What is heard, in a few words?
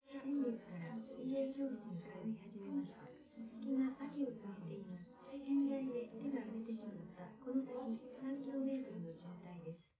female live rec